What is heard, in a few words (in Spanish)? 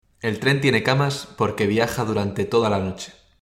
espanol oral